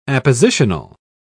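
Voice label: "appositional", British English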